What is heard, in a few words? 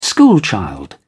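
"schoolchild", British English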